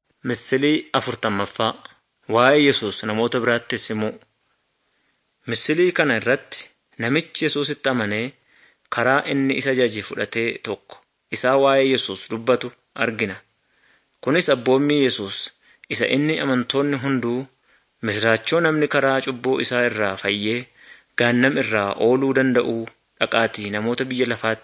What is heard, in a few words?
good news oromo wellega